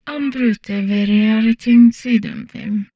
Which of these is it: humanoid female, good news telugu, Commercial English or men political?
humanoid female